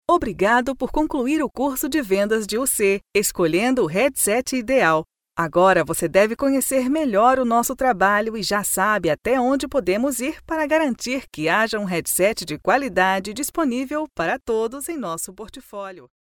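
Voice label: Portuguese Brazil, female, elearning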